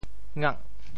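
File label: "ngak8", Teochew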